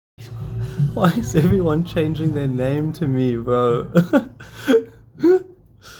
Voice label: Voice message